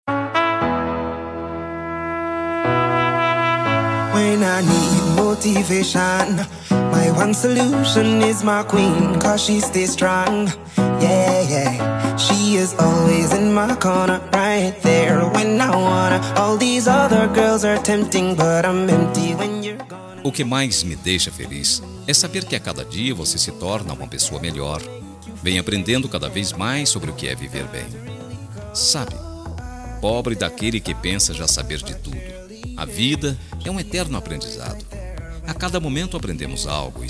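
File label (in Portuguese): birthday NORA male, telemensagem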